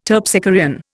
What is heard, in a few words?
"Terpsichorean", Indian